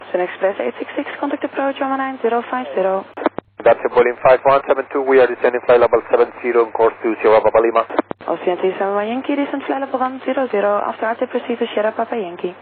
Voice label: air traffic control